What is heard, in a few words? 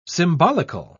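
"symbolical", British English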